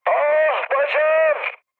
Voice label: damaged pilot, mood high, speech tur; War Thunder